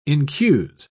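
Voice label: "incuse", British English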